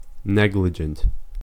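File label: "negligent", English, American accent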